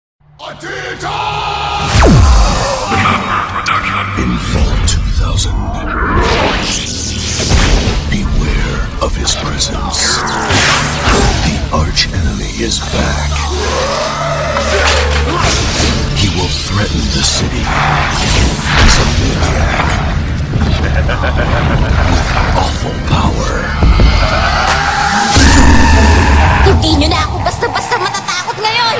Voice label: Voice Over